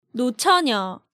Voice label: "Nocheonyeo", Korean

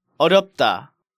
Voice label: "Eoryeopda", Korean

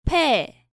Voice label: "pae", Korean

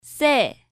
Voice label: "Ssae", Korean

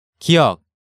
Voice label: "Giyeok", Korean

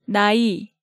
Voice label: "Nai", Hangeul sounds; DigitalSoju

